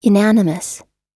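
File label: "unanimous", American English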